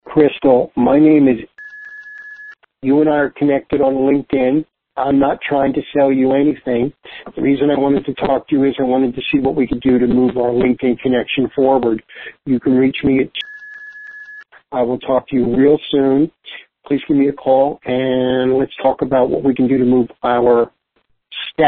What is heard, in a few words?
voicemail